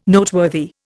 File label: "Noteworthy", Indian